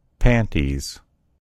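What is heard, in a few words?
"panties", American English; gcompris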